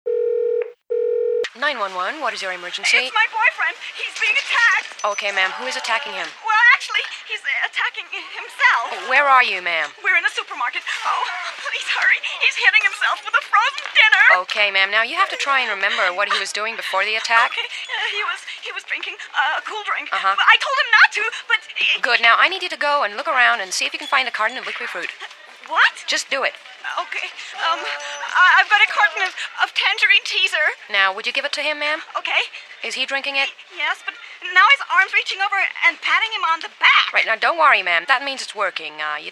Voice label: character